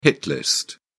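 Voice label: "hit list", British English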